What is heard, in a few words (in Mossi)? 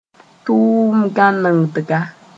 "tʋʋm-gãnegdga", moore; programme